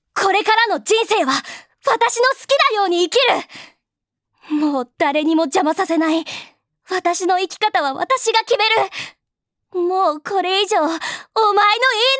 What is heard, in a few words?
girl